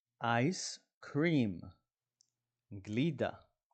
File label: "ice cream" – "גלידה"